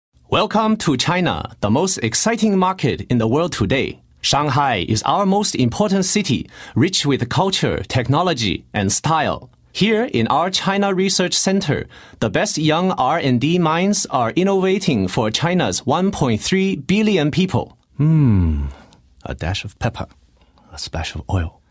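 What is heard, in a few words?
Chinese, English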